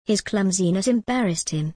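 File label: British English, example, his clumsiness embarrassed him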